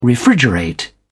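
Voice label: "refrigerate", English, uk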